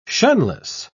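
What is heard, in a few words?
"shunless", British English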